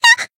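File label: Voice damaged, Japanese; harle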